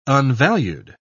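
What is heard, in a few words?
"unvalued", English, British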